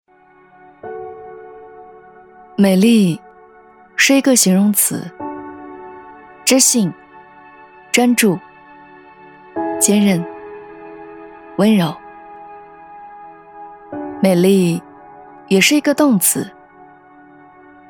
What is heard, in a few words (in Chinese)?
女 走心